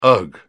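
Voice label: "ugh", British English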